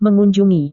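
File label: "mengunjungi", Indonesian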